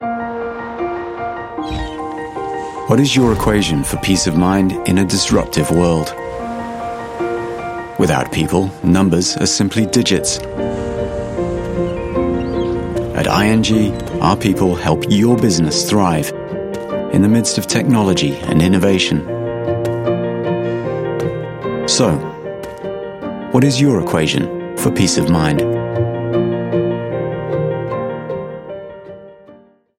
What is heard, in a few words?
UK English, Male; IMG TVC